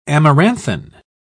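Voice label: "amaranthine", English, British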